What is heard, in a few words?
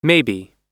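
"maybe", English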